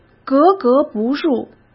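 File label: "格格不入", Mandarin Chinese; chinese-flashcards; hsk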